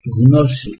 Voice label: "gnose" Ancient Greek

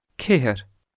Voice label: "ceithir", Scots Gaelic

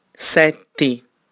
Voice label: "7", Sardinian

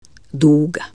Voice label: Croatian rainbow

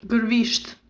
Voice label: Albanian "gërvisht"

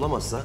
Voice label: cont rise

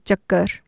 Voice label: "chakar" circle, Urdu, F

